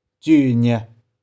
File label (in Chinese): "cioe2nih4", 玉環